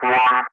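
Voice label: Speech, English, Digits, 3 continue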